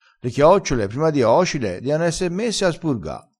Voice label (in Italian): "spurga", dialettale, crespinese